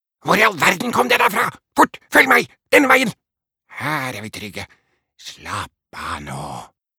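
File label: dub, Norwegian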